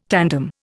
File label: "tandem", Indian